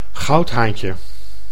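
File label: "goudhaantje", Dutch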